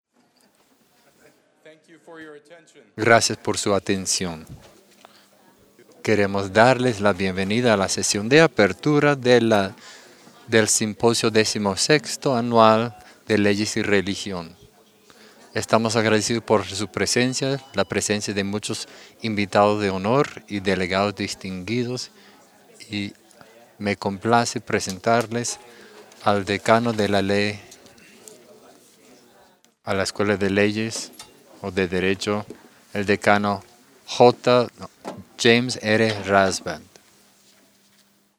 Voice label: Spanish